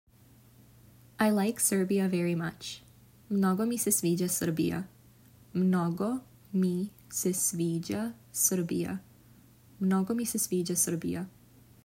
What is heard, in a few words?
"I like Serbia very much", Serbian